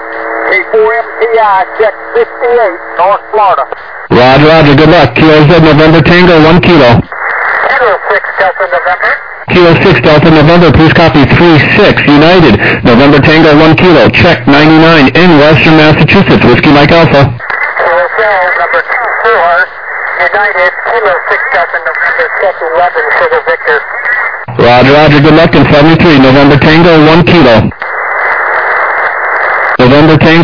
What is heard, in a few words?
USB, 21MHz